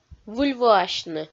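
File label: "выльвоасьны", Komi, female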